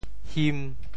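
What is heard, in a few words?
"him5", Teochew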